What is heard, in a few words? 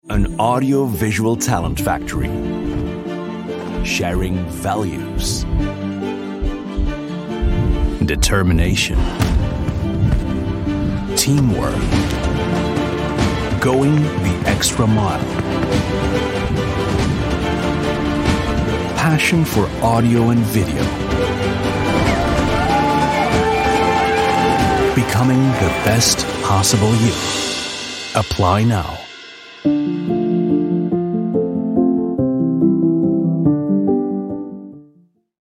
midatlantic voice corporate